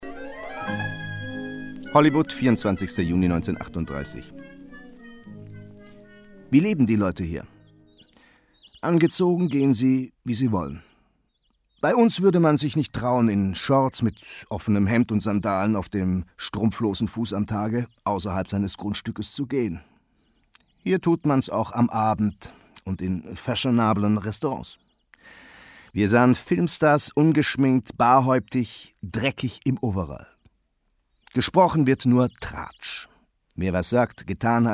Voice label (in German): Doku; LOFT VOICES